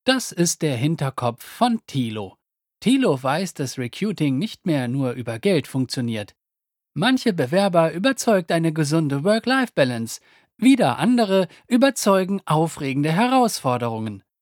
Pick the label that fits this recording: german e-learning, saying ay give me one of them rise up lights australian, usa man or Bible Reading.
german e-learning